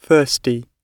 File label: "thirsty", pronunciation